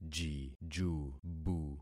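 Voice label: "jijubu", concatenated syllables; Affixing experiment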